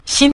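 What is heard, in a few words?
"xin", Mandarin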